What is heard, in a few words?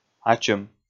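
"ачым", Komi, male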